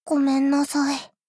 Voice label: sad sorry